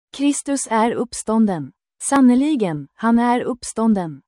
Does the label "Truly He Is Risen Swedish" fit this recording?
Yes